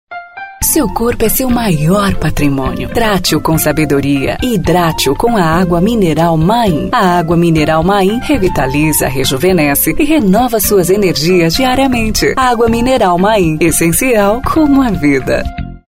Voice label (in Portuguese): spot comercial